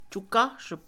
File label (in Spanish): anunciar, Mixe, Mujer; Habla; INALI